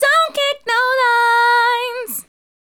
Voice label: Don't Kick No Lines, Hip-Hop and RnB Vocals

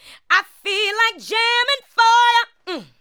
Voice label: ADLIBS 90; Ueberschall; Jam Box

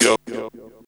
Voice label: VOX, Grunge Hip Hop; USB Soundscan vol.34; AKAI; TIMBA

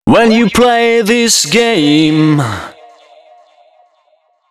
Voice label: male d# 130, short hooks, Dance Voice

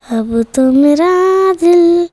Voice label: CHILD VX, Indian Traditions

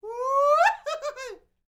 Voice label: MEXICO VOICE, MEXICAN; ILIO; Vocal Planet VOL-5

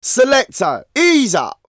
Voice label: Vocals; Jungle Frenzy 1

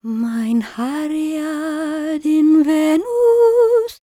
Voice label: WELSH F, World Voices

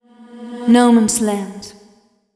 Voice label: fem spo, Vocals; Mutekki Media; Diamond Vocals 2

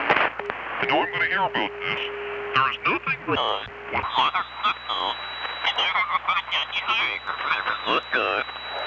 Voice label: Radio Voice, Short Wave; Sonic Foundry; The Electronic Music Manuscript Collection; EVP Short Wave Recordings

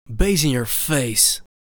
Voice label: "Bass In Your Face", vocal phrases; Ultimate Vocals

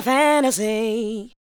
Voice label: SPOKEN VOX, ADLIPS

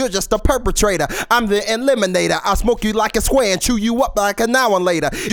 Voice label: Chew You Up, MCs, Hip-Hop; Sonic Foundry (Sony Creative Software); Hydroponic Hip-Hop

